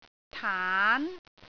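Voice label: "taan2", Cantonese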